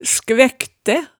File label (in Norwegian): "skvæktæ", hemsedalsmaalet